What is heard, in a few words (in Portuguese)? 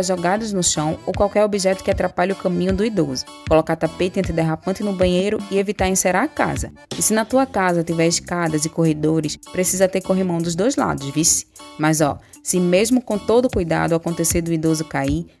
SPOT RISCOS DE QUEDAS PARA OS IDOSOS